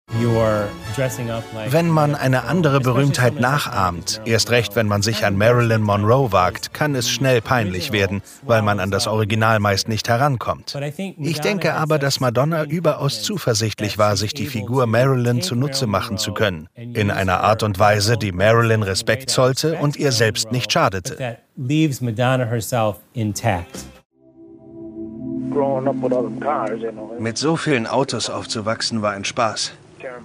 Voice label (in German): doku mix